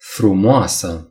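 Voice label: "pretty", Romanian; gcompris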